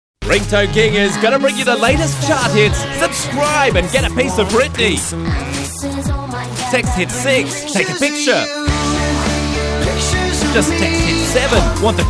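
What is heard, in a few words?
Australian advert, englisch; werbung; sprecher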